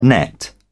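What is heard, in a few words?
"nett", British English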